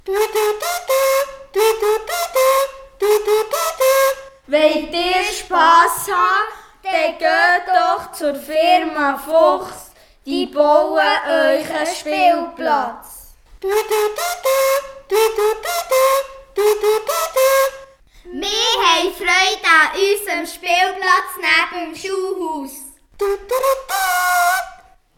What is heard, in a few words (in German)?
Trailer; Werbejingle Spielplätze Herr Fuchs; Allmendingen